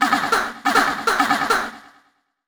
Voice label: VOICEFX TEKNO 140, Techno, Voice; eJay Sound Collection 2